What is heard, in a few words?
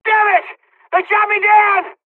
dialogs turkish; War Thunder